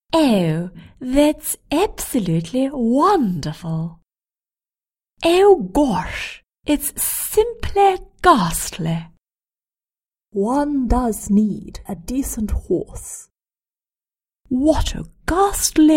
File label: Posh Intonation